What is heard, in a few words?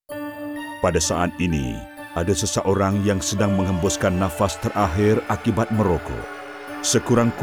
Dying, radio, bm; taknak